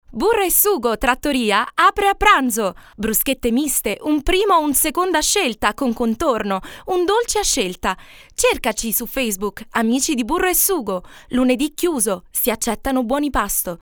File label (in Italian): italiano dinamica